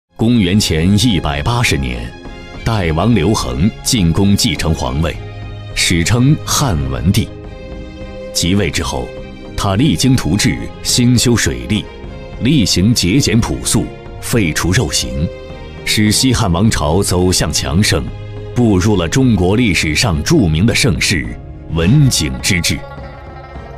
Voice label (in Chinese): 男 电视栏目; CCTV-10电视栏目; 百家讲坛